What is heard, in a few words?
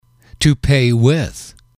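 "to pay with", English, male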